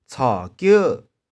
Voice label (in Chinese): "tshau2kieu6", 玉環